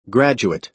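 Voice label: "graduate", American English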